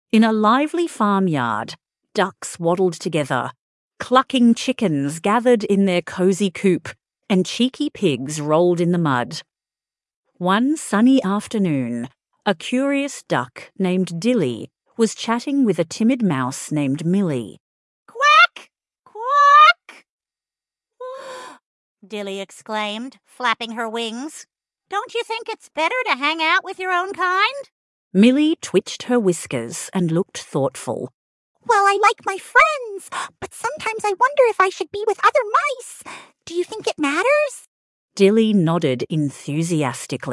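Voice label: Birds of a Feather, female Australian story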